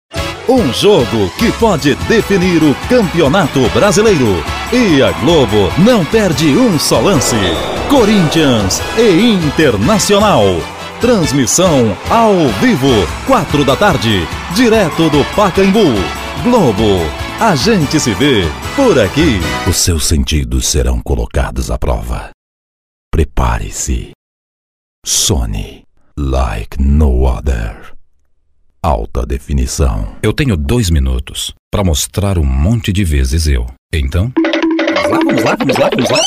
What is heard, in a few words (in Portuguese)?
locutor